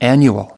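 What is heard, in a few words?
"annual", English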